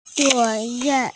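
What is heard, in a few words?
"responsibility", Mandarin Chinese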